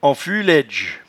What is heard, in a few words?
"enfuelaidge", patois jura